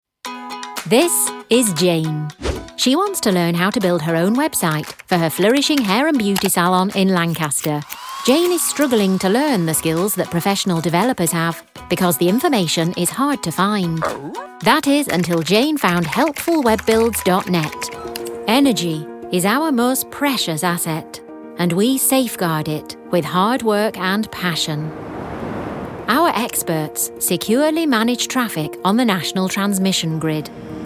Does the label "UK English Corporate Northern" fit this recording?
Yes